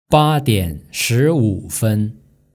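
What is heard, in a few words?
"ba dian shi wu fen", Mandarin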